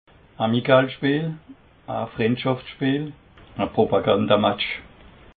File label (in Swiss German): "amikalspeel", alsace